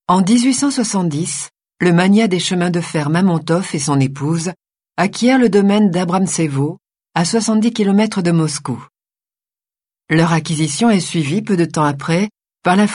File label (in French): audioguide; musee dOrsay; Paris